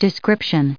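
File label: "description", US English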